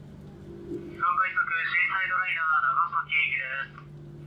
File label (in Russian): Запись звукового оповещения. Токийский метрополитен